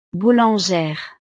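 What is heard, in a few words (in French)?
"boulangere", French; profesiones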